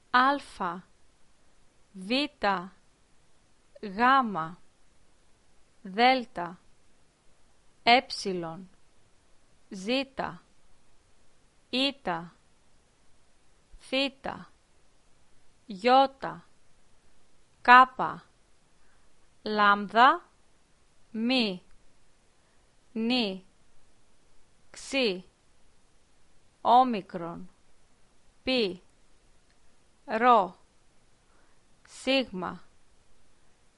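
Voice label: alphabet Greek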